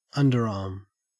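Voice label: "underarm", Australian English; pronunciations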